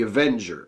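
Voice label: "avenger", American English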